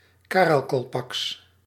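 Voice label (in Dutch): "Karakalpaks", Dutch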